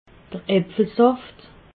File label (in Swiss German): "apfelsaft", alsace